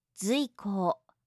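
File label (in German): "zuiko", japanische Mädchennamen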